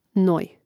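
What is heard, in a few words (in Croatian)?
"noj" Croatian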